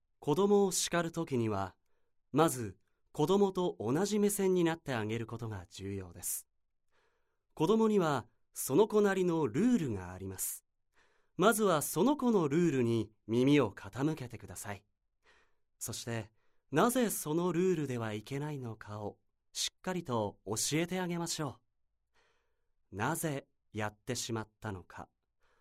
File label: narration